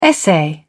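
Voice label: "essay", British English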